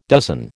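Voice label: "dozen", American English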